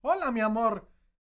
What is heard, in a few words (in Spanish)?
"HOLA", MEXICAN; ILIO; Vocal Planet VOL-5